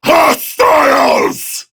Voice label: Enemy Spotted Prime Grineer Male